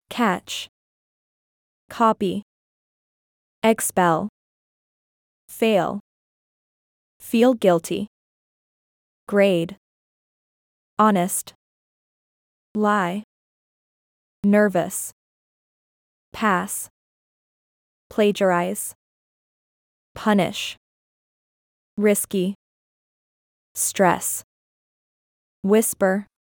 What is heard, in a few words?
usa; cheating